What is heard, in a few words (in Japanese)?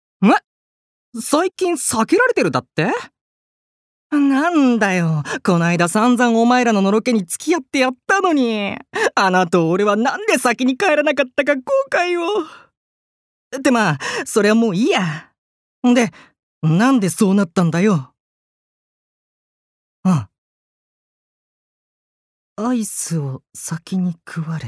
アニメ